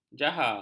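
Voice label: "jaha", Malay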